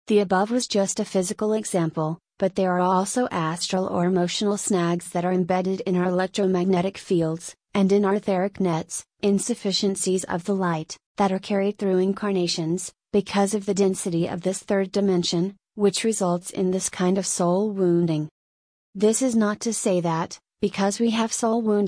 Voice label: substitute voice rate slow pitch medium; alice; karen